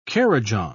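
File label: "kurrajong", British English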